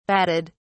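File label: "batted", British English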